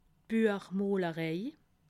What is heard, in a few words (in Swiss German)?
"buech molerei", alsace